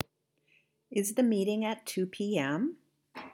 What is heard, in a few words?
Yes No Question, accent american